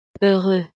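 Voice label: "heureux", French; estados animo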